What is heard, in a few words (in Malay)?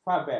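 "fabel", Malay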